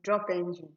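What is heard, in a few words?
"drop engine", Nigerian Pidgin, female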